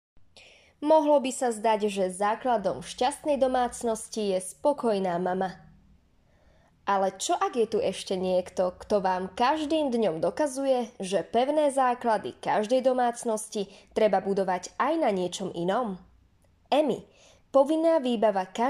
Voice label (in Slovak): zensky hlas voice over